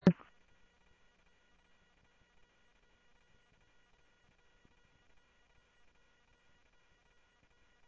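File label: Sheriff West County, scanner audio trunk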